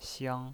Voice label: "xiang1", pinyin